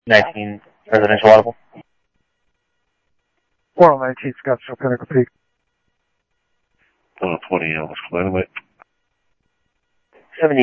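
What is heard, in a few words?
police department, scanner audio trunk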